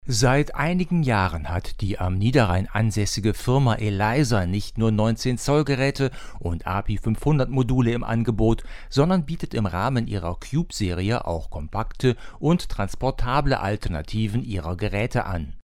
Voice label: Rode NT1a; Steinberg IXO22